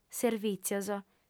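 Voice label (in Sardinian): "servìtzios", female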